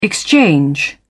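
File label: "exchange", British English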